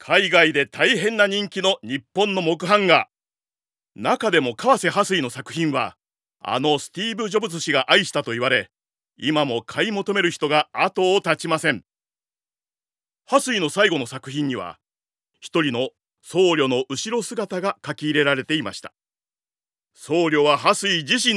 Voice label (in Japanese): ナレーション